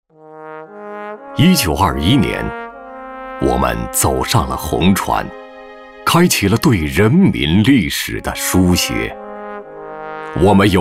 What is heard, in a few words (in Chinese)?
男 专题片 百年党建 深沉 大气